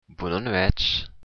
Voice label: good night, occitan

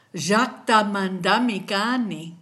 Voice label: i'm from, kichwa

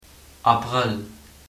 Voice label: "april", luxembourgish

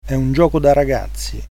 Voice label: easy, it

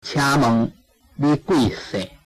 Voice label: name, taiwanese; 請問，你貴姓？; chhiáⁿ-mn̄g, lí kùi-sèⁿ?; Chinese (Taiwanese)

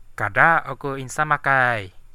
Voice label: go away, central dusun

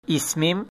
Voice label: my name is, turkish

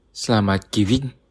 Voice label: good evening, bonggi

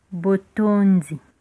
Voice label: thanks, lingala

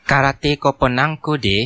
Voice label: do you understand, central dusun

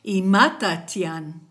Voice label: how are you, kichwa

